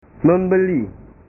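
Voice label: "membeli", Indonesian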